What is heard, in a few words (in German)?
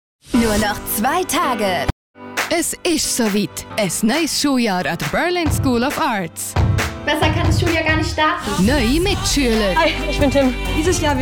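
Swiss, Freundlich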